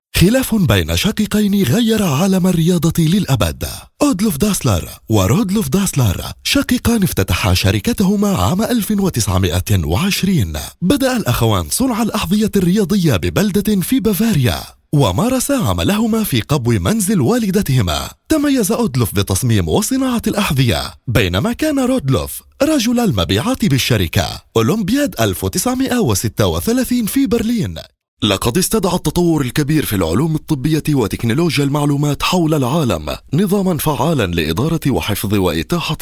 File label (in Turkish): Arapca Erkek Klasik Arapca; erkek sesler; seslendirme